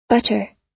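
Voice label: "butter", US English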